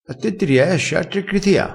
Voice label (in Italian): "critia", crespinese